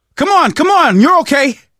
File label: revive friend loud, survivor voice